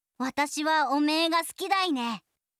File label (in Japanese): 好きです, 群馬; 響